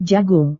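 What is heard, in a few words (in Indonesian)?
"jagung", Indonesian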